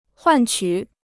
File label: "换取", Chinese